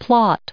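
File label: "plot", us English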